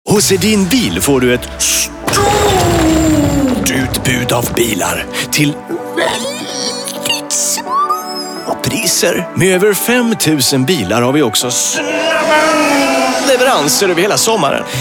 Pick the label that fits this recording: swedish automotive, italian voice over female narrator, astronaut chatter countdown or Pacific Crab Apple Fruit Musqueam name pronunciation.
swedish automotive